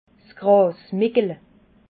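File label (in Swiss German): "grasmickla", Alsatian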